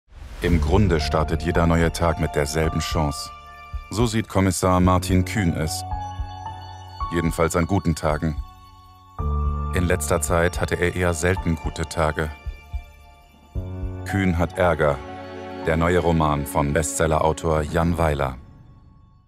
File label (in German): buchtrailer